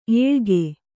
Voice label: "ಎಳಗ", Kannada